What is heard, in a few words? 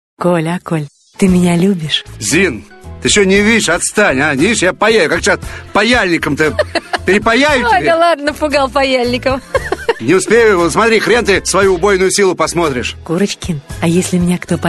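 Russian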